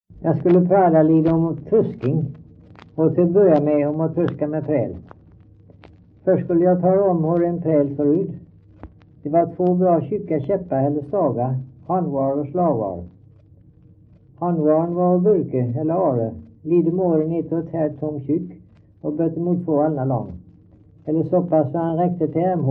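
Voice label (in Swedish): Stamnared Halland, dialekter; Folkminnen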